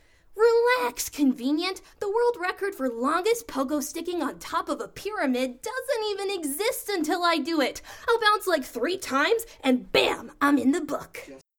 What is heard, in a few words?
Animation